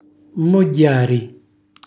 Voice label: "mmogghiari", Calabrese; bagnare, inzuppare; cucina calabrese; Glossario